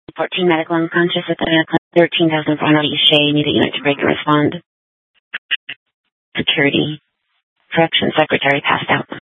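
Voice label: Police Department North Dispatch, scanner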